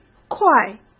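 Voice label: "快", Mandarin Chinese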